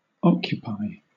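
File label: "occupy", British English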